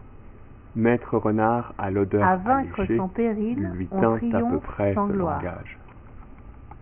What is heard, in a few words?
long example mixed french